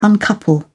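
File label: "uncouple", British English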